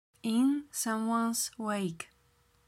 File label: in someones wake pronunciation slow; Best English Nitra